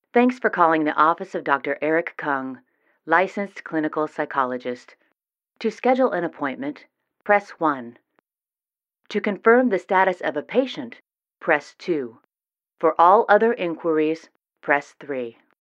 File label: english american ivr